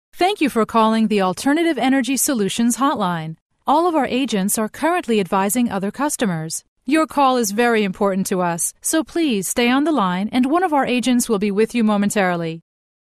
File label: English secondary, bilingual